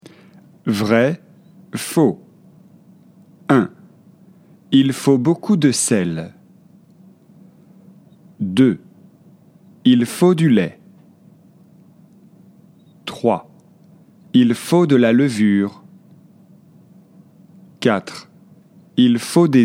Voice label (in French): crepe dialogue fle vrai faux